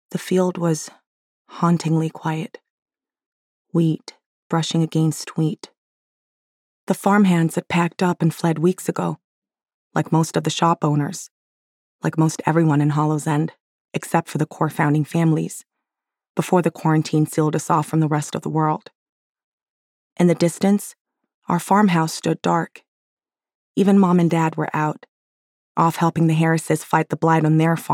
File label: First Person Narration YA Fiction